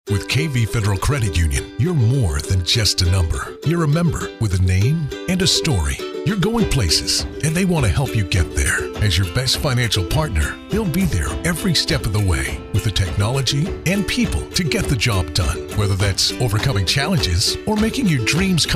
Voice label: Sincere - Warm - Positive

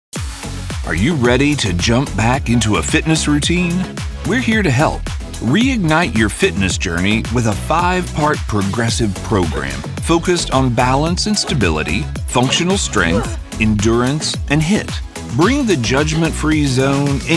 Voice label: CORPORATE EXPLAINER

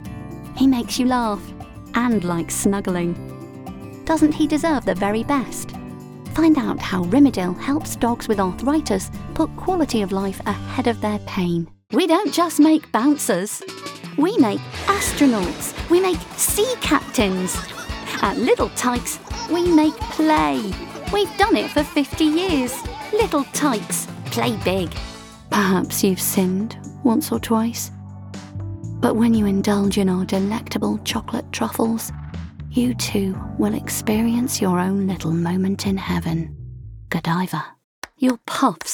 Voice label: Commercial (RP)

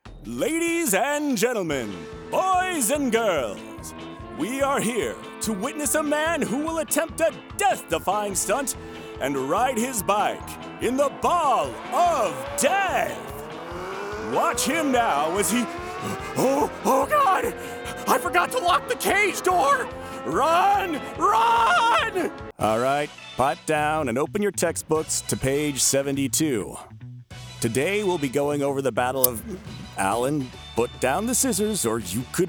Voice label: Character

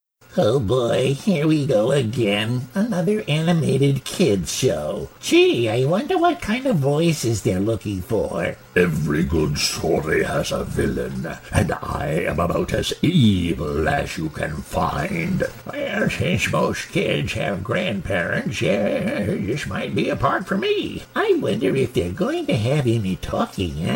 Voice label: cartoons

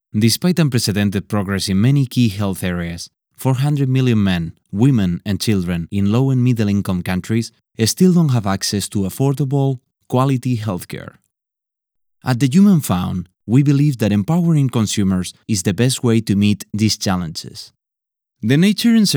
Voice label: Spanish accent; Human Fund Excerpts #1 and #2; English with Spanish accent

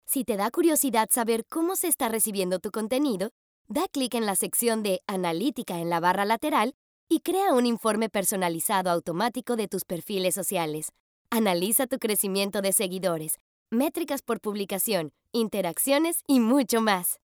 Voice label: Educational upbeat

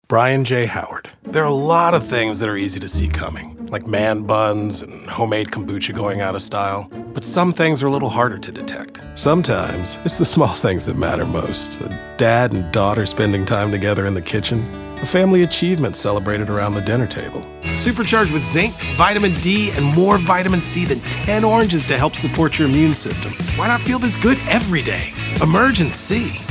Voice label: Voice-Over Demo

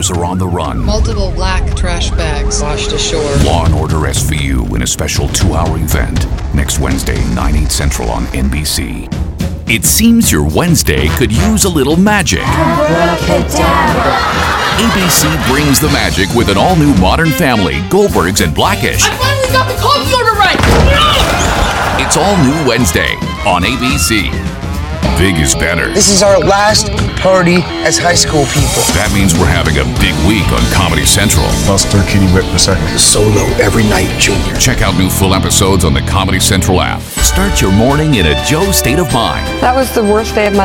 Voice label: TV promo Network

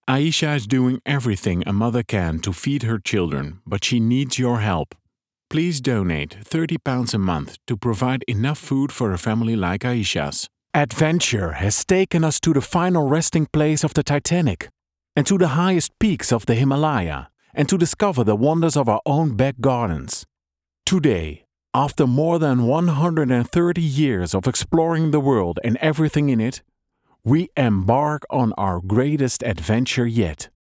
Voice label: European-International VO

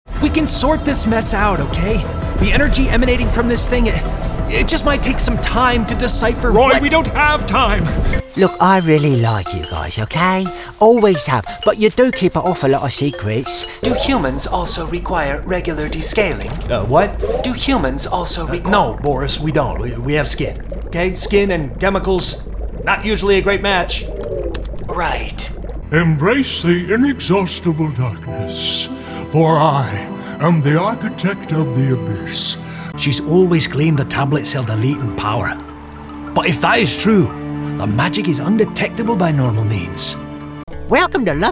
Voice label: Character

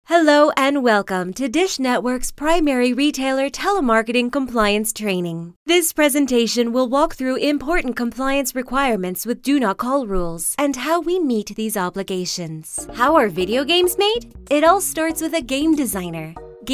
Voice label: ELEARNING AND EXPLAINER

